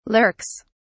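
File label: "lurks", British English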